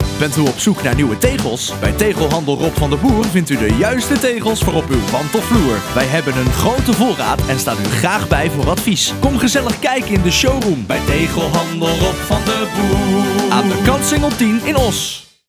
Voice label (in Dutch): Commercial; Tegelhandel Rob Van De Boer